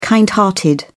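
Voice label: "kind hearted", British English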